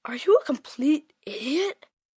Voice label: Complete Idiot, Attitude, 1 boy, 10 yrs; VoiceBox